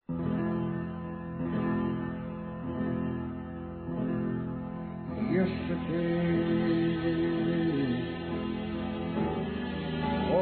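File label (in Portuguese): Desculpas para amigo(a), masculino, telemensagens; Amizade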